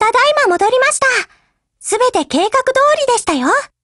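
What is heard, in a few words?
Japanese, Voice; OPERATIONOVER; GF Alarm; MP40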